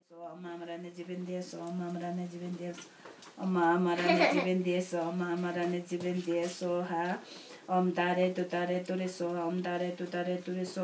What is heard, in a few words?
Woman Reading Tibetan Prayers and Child Laughing, Religious Music; Braga Nepal; Samuel Corwin; Selected Field Recordings from India and Nepal